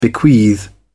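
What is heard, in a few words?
"bequeath", English, British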